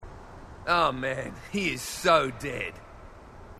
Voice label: marine, aussie; h2dialogue